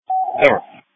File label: Police Dispatch